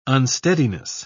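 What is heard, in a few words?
"unsteadiness", British English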